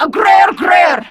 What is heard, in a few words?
Patrol, Grineer Female; Warframe; Battle Barks